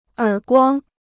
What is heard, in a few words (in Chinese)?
"耳光", Chinese